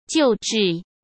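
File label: "救治", Mandarin Chinese; hsk; chinese-flashcards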